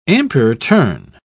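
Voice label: "ampere-turn", English, British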